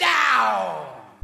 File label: "dow", voice; ooh ahh